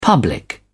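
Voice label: "public", British English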